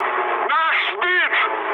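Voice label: Russian aircraft destroyed mood high, speech; War Thunder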